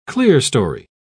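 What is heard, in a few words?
"clearstory", English, uk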